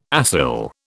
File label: "acyl", British